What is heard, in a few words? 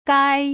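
"gai", Mandarin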